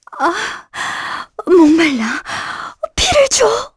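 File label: Vox Skill, Korean; Erze; kingsraid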